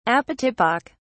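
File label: "apotypic", British English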